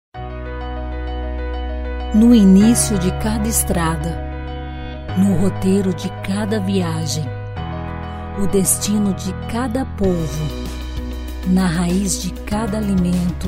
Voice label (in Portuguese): Corporativo